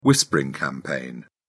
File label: "whispering campaign", uk